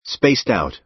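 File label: "spaced-out", American English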